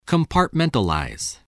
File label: "compartmentalize", english